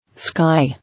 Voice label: "Sky", English

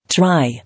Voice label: "Try", English

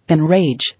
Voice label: "Enrage", English

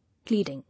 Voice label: "Leading", English

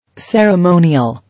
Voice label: "Ceremonial", English

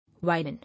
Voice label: "Widen", English

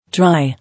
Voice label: "Dry" English

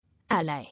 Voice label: "Ally", English

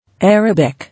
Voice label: "Arabic", English

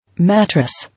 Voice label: "Mattress", English

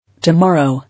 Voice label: "Tomorrow", English

